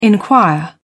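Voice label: "inquire", British English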